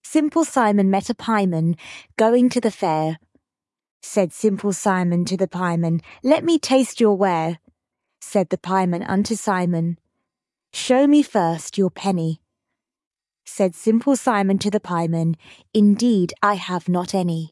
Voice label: fem brit; Simple Simon

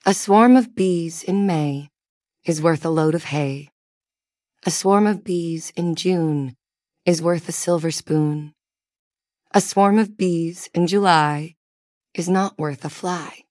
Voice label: fem us; A Swarm of Bees in May

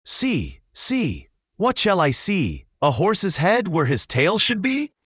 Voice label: See See What Shall I See, male US

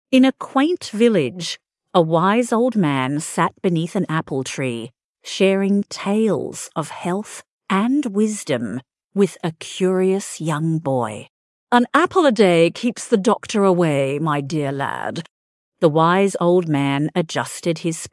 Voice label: fem aus story; An Apple a Day

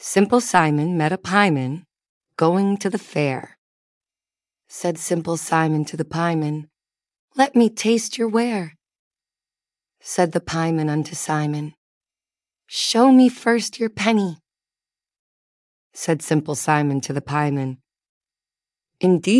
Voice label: fem us; Simple Simon

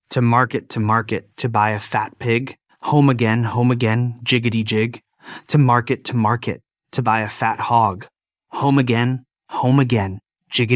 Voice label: To Market To Market male us

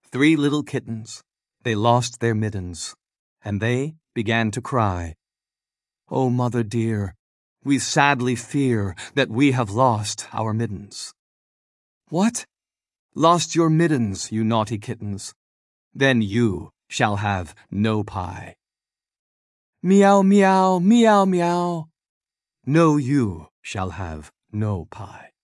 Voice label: Three Little Kittens male us

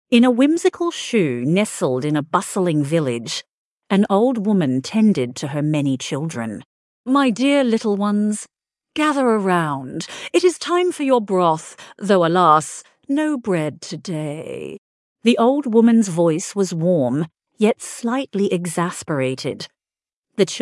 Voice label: fem aus story; There Was an Old Woman Who Lived in a Shoe

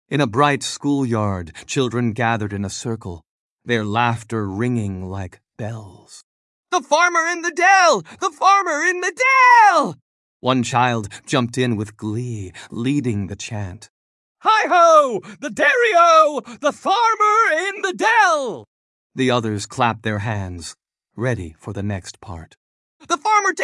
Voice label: male us; The Farmer in the Dell

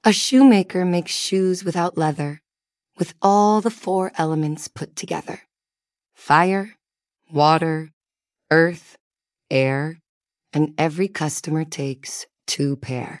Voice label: A Shoemaker Makes Shoes fem us

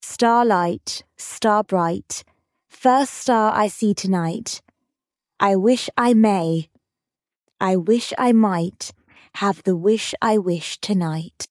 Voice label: fem brit; Star Light Star Bright

